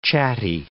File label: "chatty", English